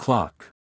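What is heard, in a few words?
"clock", English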